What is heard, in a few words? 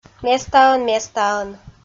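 "местаӧн-местаӧн", Komi, female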